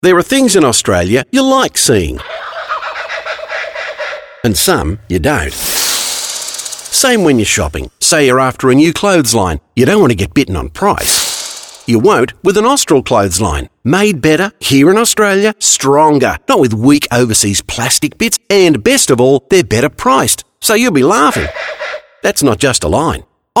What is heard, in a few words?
Kookaburra, commercials